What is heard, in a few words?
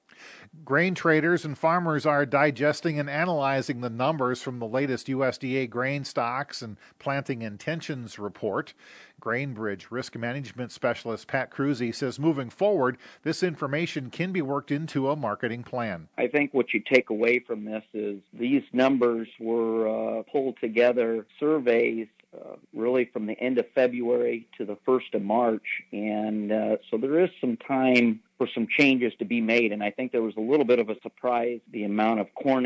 air check; GrainBridge